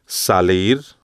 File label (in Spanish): "salir bien" Spanish